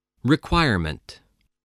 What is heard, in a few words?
"requirement", English